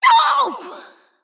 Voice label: Female Shivarra Death

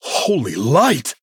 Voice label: Male Human; Arthas

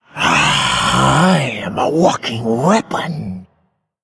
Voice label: Female Elemental Play

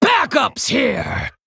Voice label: Female Worgen Play

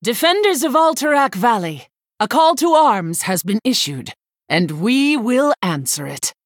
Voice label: Female Human Bark; BalindaStonehearth

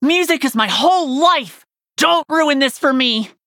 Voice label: Nonbinary Night Elf Threaten

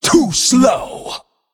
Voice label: Female Tiger Attack

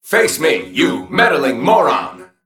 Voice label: Male Mech Play

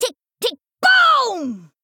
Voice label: Female Goblin Attack

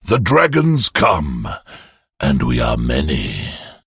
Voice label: Male Dracthyr Play

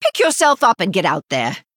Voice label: Female High Elf Emote Behind

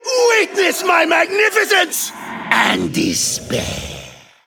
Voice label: Male Ethereal Emote Greetings

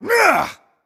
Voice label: Male Night Elf Boss Attack

